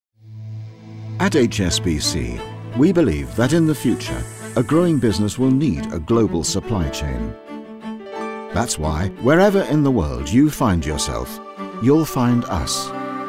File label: commercial